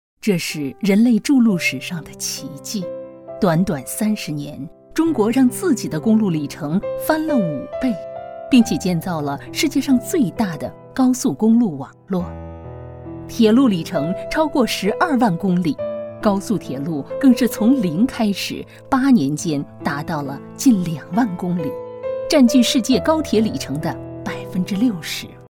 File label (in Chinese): 铁路纪录片